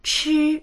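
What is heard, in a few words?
"chi1", Mandarin Chinese; 吃; 食べる; dictionary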